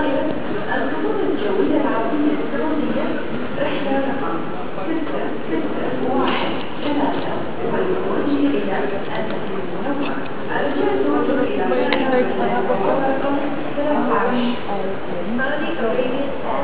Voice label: dubai flight announce